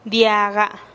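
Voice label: "diaga", Teotitlán del Valle Zapotec; oreja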